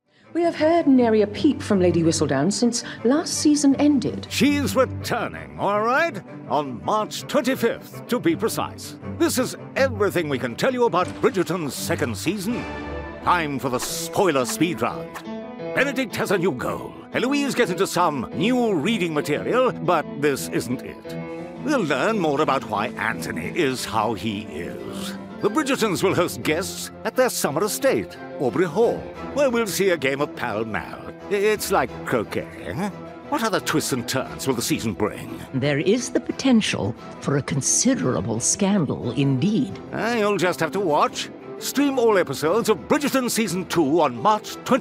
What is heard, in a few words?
english british tv shows